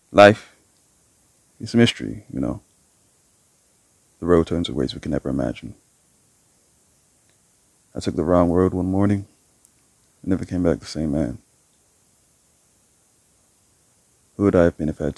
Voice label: American accent